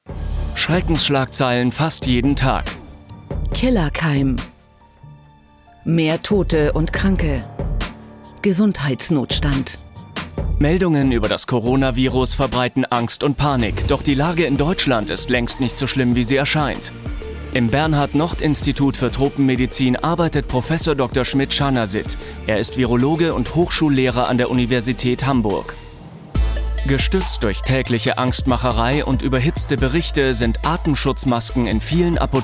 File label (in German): Kommentar, maenner; Akte 2020; Corona